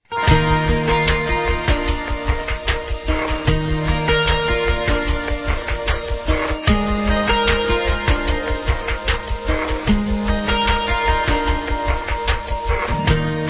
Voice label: Aniversário Religioso Masculino; ANIV. Evangelico

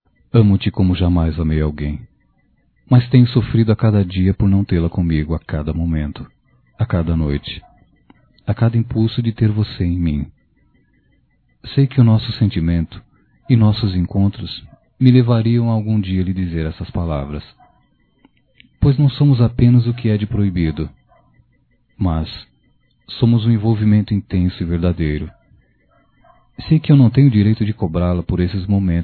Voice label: Amor Proíbido Masculino, Voz; Telemensagens; Só Voz; Telemensagens 2017

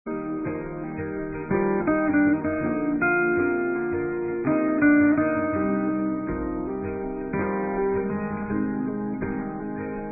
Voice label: FILHOS PARA PAI, fem; Telemensagens 2017

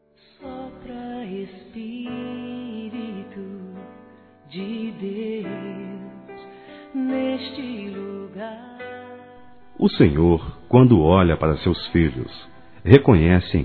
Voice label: Aniversário Avó Masculino

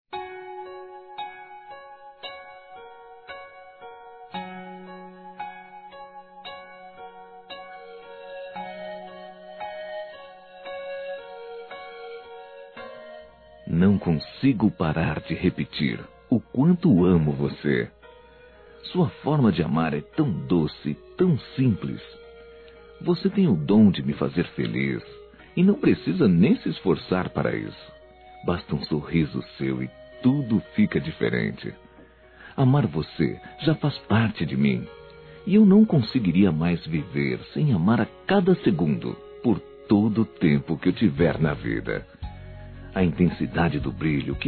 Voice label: NAMORO ANTIGO M

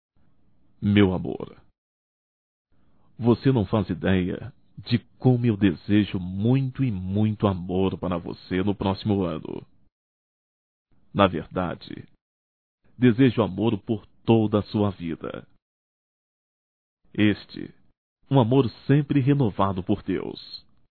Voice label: Ano Novo Romântico Masculino; Natal e ano novo; Telemensagens 2017

